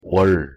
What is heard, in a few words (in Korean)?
"월" Korean; pronunciation